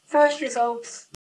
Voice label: Voice Acting es